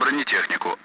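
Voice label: "armor", Russian; Allied Flight; DCS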